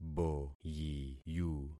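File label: "boyiyu", concatenated syllables; Affixing experiment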